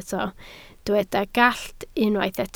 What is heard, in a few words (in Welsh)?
"gallt", Welsh